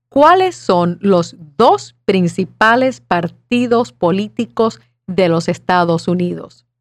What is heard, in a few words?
Spanish